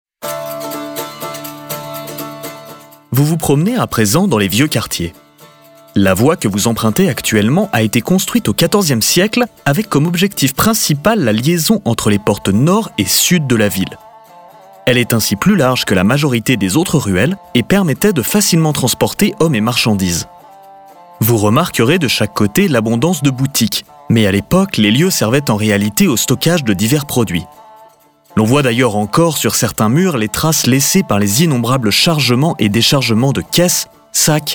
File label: french audio guides